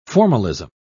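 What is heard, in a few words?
"formalism", English, British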